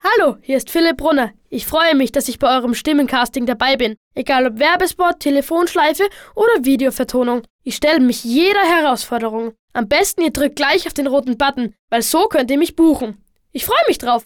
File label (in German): Kinder Neutral